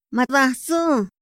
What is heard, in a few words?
"ma datsu", Otomí; INPI; INALI